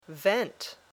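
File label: "vent", English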